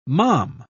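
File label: "malm", English, uk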